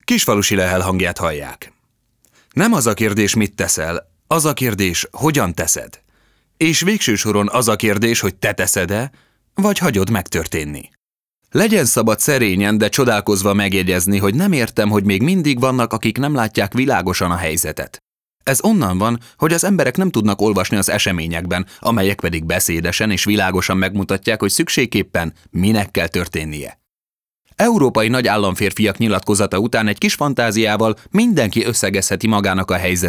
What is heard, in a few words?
hungarian m narration; voice demos